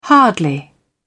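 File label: "hardly", British English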